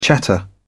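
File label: "chatter", British English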